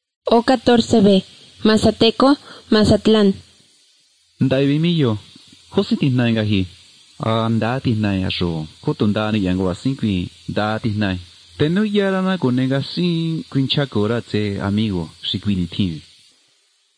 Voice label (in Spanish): spanish mexico